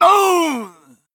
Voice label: Male Blood Elf Death